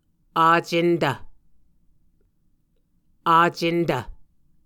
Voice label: "acentv", Muscogee